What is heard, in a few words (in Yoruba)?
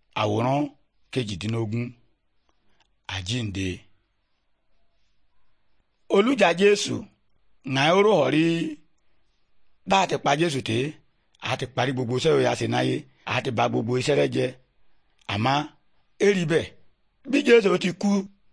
good news yoruba, bible